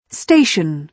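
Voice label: "station", British English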